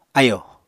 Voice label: "ayò", Apatani

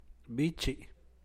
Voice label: "bichi", San Mateo Macuilxochitl Zapotec; chico, pequeño

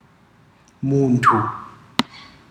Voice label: "person individual human", ndau

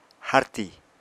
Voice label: "harti", apatani

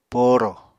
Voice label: "poorò", Apatani

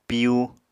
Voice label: "piyu", Apatani

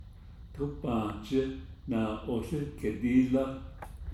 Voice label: navajo; I planted the beans alongside the stream

